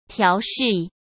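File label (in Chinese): "调试", Mandarin Chinese; hsk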